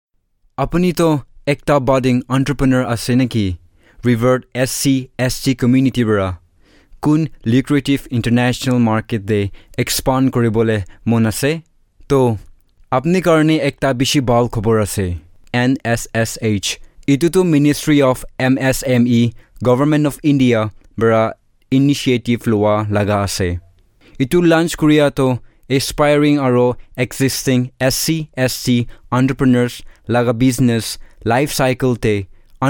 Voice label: elearn nagamese